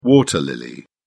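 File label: "water lily", British English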